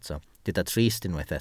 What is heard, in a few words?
"trist", Welsh